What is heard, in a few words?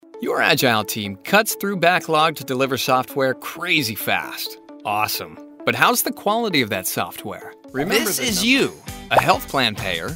MC Explainer